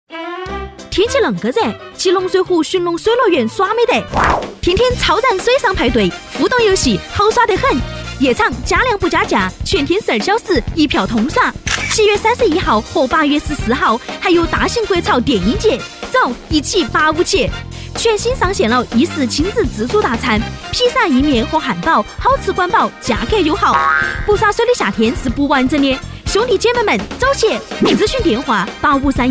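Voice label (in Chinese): 重庆 女 重庆方言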